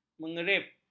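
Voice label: "mengerip", Malay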